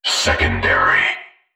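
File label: "secondary", male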